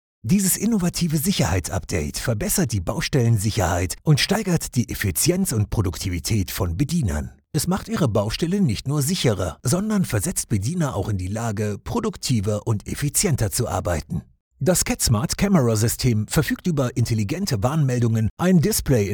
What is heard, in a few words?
male german explainer; smart camera; catr